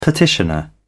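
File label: "petitioner", English, British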